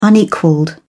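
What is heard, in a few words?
"unequalled", British English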